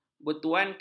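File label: "bertuankan", Malay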